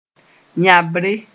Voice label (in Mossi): "yãbre", moore; cankerwart